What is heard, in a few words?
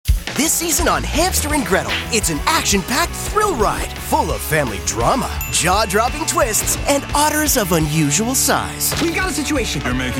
men promos